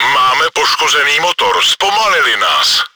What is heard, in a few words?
engine damaged, cz; Czech; Повреждение/ремонт двигателя игрока; World of Tanks